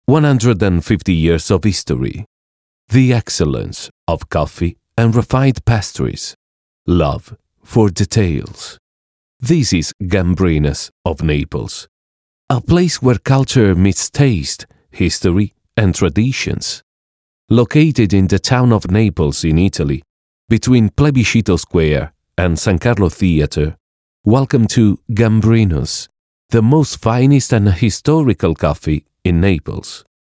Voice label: TVC in English; GAMBRINUS